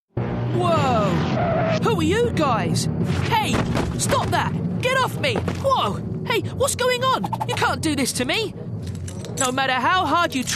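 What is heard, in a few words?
animation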